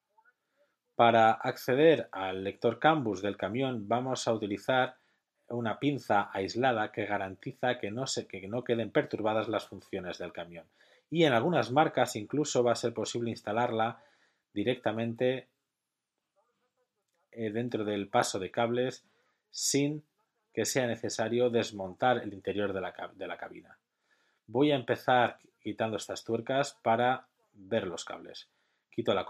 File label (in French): Voix ES interp; Traduction audiovisuelle : doublage, voix off et sous-titrage